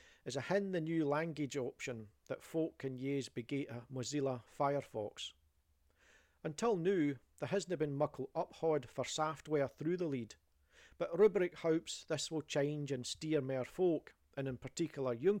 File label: Scots; Mozilla